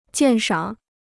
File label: "鉴赏", Chinese